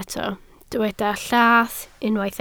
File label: "llath", Welsh